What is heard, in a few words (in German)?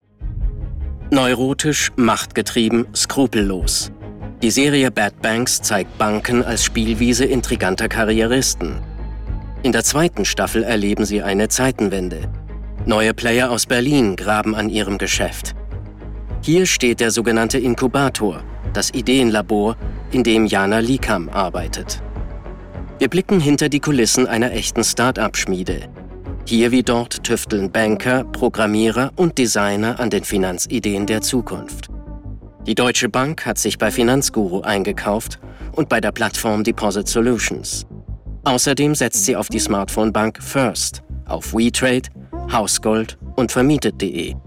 Trailer; Bad Banks